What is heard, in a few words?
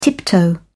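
"tiptoe", British English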